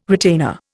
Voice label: "retainer", Indian